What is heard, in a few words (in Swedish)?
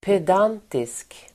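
"pedantisk", Swedish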